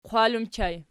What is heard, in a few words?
"qwal", Archi